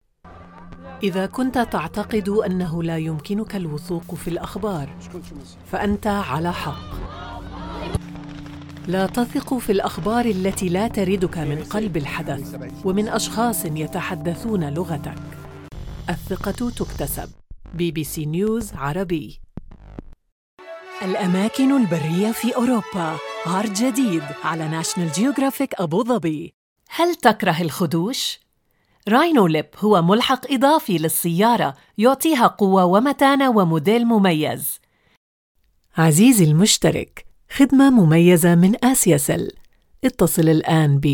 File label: Arabic